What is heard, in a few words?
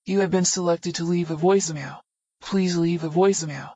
voicemail